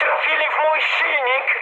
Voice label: damaged engine mood high, speech pol; War Thunder